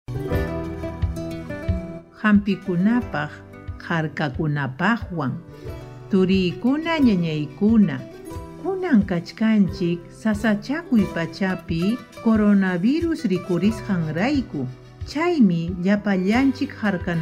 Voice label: quechua chanka, spot de radio; tomar medicamentos con precaucion; sospecha o confirmacion de contagio

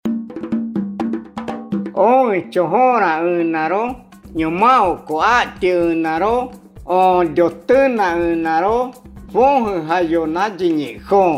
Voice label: ocaina, spot de radio; sospecha o confirmacion de contagio; en caso de presentar sintomas

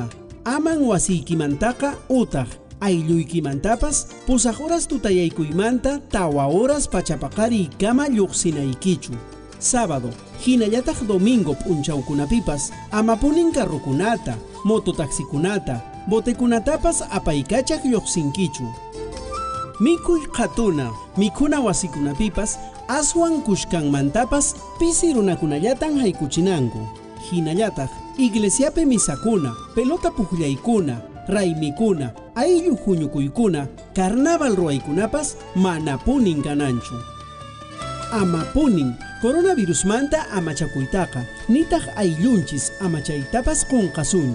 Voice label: quechua cusco collao nuevas medidas, spot de radio; precauciones al salir de mi comunidad

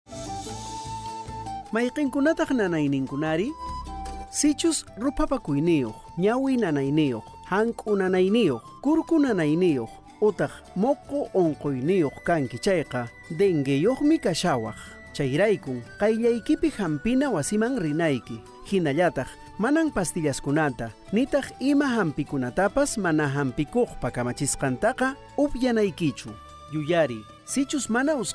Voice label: quechua cusco collao, spot de radio; sintomas del dengue; sospecha o confirmacion de contagio

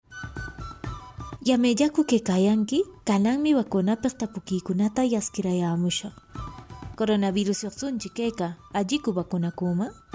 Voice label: quechua ancash microprograma preguntas y respuestas, spot de radio; microprograma 2; vacuna contra el coronavirus

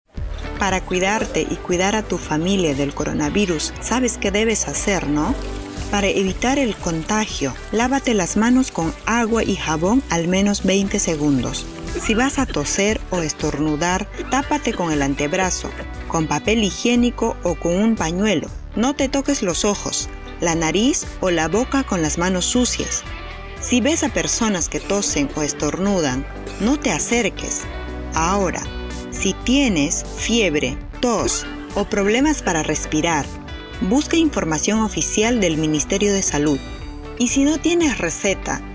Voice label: castellano recomendaciones generales, spot de radio pista amazonica; medidas de prevencion

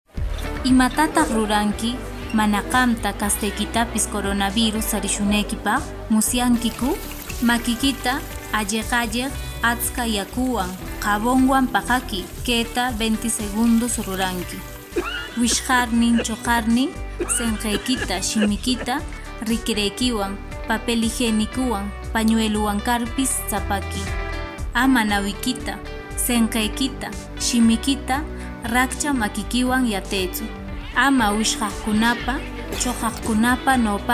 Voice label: quechua ancash, spot de radio; recomendaciones generales; medidas de prevencion

